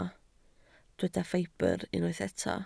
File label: "ffibr", Welsh